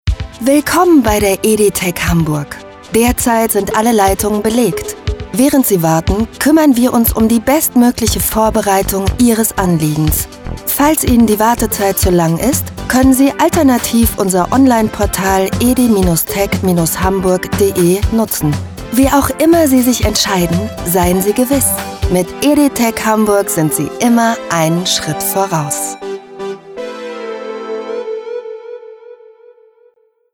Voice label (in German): TELEFON, Wait Loop (Warteschleife), German; ED-Tech Hamburg Telefonschleife 2023